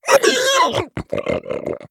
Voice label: Male Quillboar Death